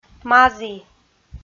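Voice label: "мазі", Komi, female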